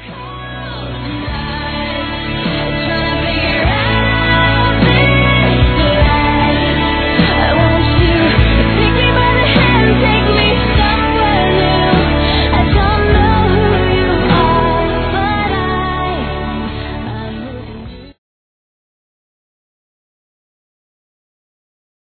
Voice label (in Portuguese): Você Deixou Um Vazio Feminino, Telemensagens Românticas